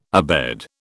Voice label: "abed", British

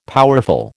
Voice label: "powerful", American

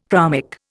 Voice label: "chromic", Indian

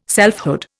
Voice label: "selfhood", Indian

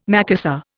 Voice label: "Macassar", Indian

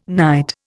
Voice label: "knight", Indian

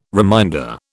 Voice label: "reminder", British

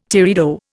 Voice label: "teredo", Indian

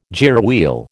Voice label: "gearwheel", American

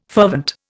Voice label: "fervent", Indian

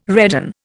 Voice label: "redden", Indian

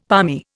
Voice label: "palmy", Indian; Dictionary

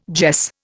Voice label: "jess", Indian

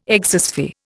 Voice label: "exosphere", Indian

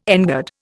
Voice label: "engird", Indian

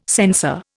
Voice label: "sensor", Indian

